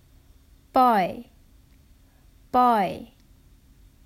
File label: Bei 貝 Hakka; The Duke Who Didn’t: Glossary & Pronunciation Guide